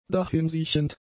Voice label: "dahinsiechend", German; adjectives

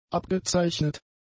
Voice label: "abgezeichnet", German; adjectives

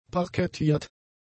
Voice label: "parkettiert", German; adjectives

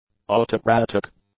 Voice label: "autocratic", English; adjectives

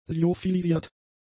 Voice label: "lyophilisiert", German; adjectives

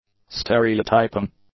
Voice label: "stereotyping", English; adjectives

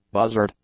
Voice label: "buzzard", English; nouns

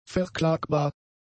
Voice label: "verklagbar", German; adjectives

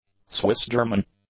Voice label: "Swiss-German", English; adjectives

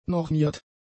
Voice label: "normiert", German; adjectives

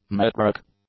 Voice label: "metric", english; adjectives

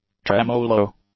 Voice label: "tremolo", English; nouns

